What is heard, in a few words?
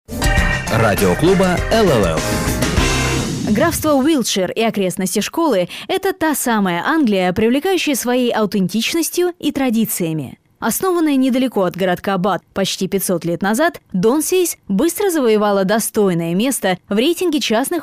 russian female narration